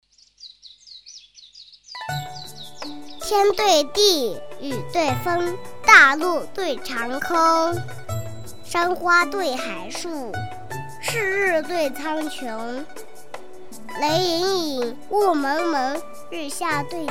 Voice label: 女,真童6岁 课件 声韵启蒙

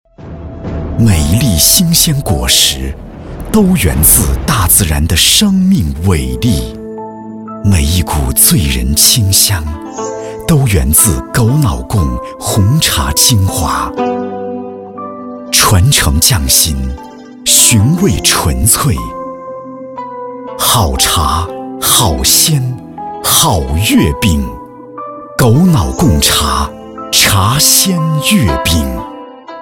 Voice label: 男, 广告, 浑厚大气优雅食品中秋茶月饼广告

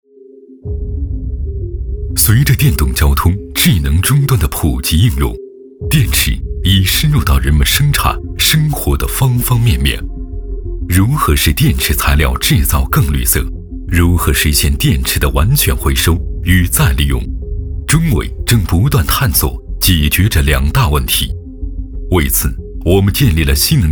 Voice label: 男 宣传 科技 片 大气高端; 中伟

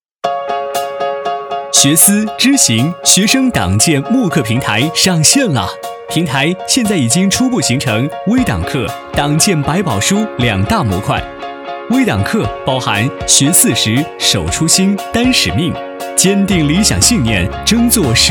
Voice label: 男 飞碟说 学生党建慕课平台介绍

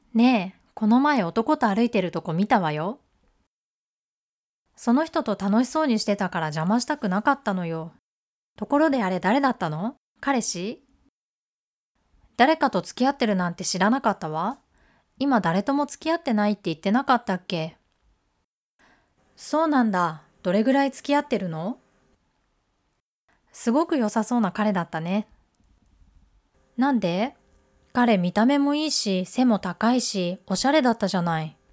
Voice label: 外籍日语女 对话

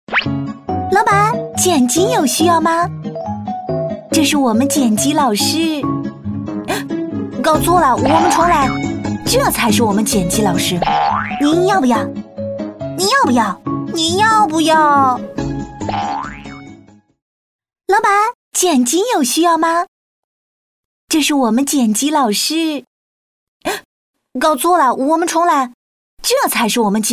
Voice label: 女,轻松欢快

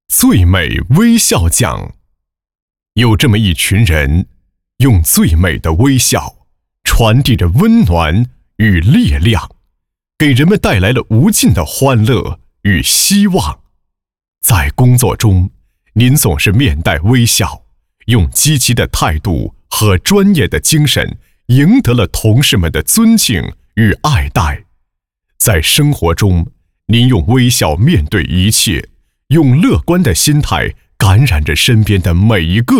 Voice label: 男 颁奖词

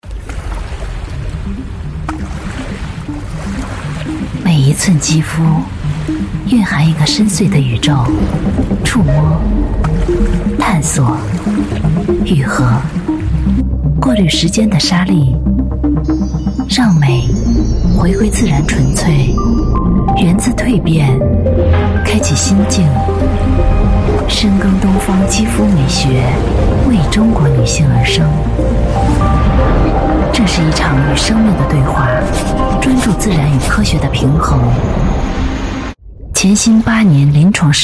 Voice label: 女 TVC; 盛世华肤

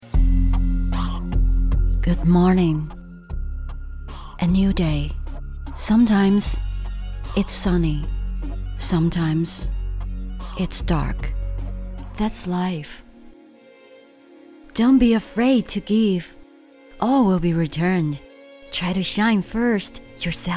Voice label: 女 专题 英文电影独白 高冷风格

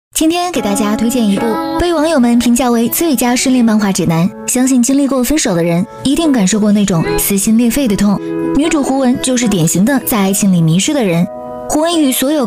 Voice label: 女 短视频 影视解说; 抖音

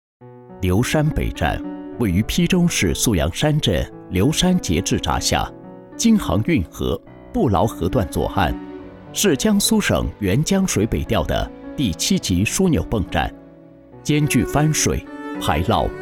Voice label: 男 多媒体 叙述

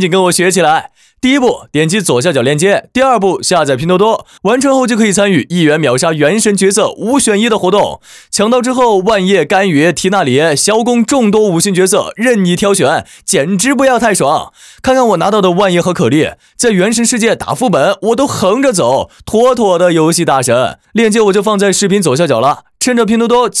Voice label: 男 游戏 角色; 原神

